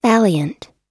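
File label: "valiant", American English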